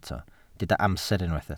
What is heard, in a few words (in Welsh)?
"amser", Welsh